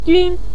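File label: "jun" neutral tone, Mandarin Chinese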